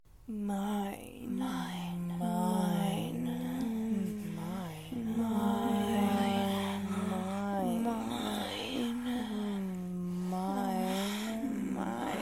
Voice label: One-Word Challenge, Short, Whimpers, growls, Multi-layers; F4A; Mine; A surprise at the end